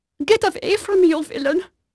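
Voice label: Dutch female, voice; Pirates Of The Caribbean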